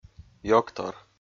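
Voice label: "ёгтор", Komi, male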